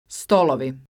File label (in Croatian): "Stolovi", croatian